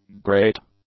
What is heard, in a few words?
"great", english; adjectives